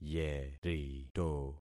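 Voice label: "yerito", concatenated syllables; Affixing experiment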